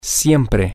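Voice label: always, Spanish, male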